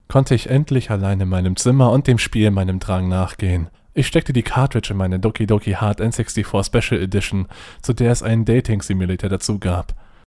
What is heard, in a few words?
GERMAN